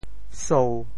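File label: "sou1", Teochew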